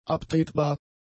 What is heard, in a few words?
"abtretbar", german; adjectives